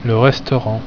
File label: "Restaurant", French